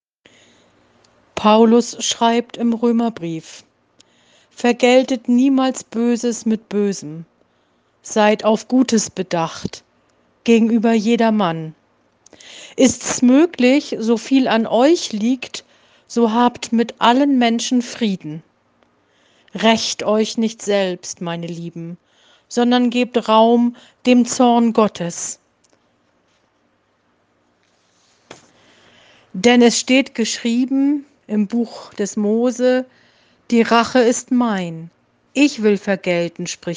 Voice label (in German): Lesung